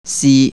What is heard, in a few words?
Cantonese to try